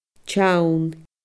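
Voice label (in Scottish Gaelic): "teann", Scottish Gaelic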